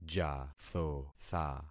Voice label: "jathotha", concat syllables; Affixing experiment